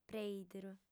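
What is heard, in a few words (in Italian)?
"preìderu", lingua sarda, fem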